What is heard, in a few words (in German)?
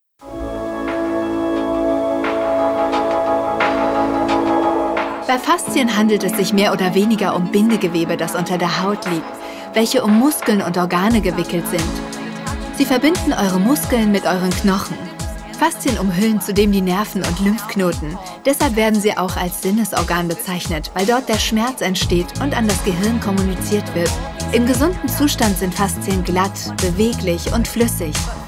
Voice-over Fitnessfilm